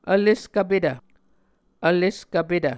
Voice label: "vleskvpetv", Muscogee